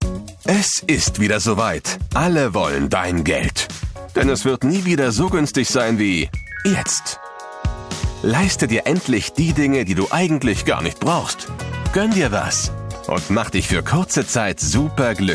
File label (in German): Werbe Spot, Commercial (Werbung), German; Black Friday; Black Friday - Demo-Werbespot